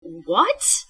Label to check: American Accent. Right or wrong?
Wrong